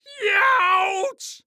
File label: cartoon voice male yeouch ouch